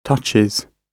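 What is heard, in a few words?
"touches", British English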